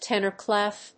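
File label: "tenor clef", English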